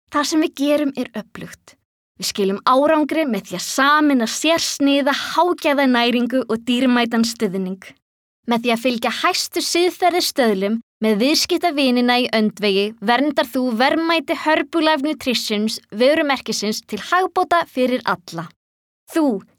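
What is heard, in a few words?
Icelandic general; GoLocalise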